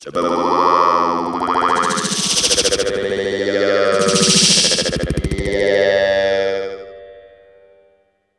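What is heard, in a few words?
effects talk, Funky Vocals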